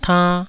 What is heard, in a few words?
"tann2", Taiwanese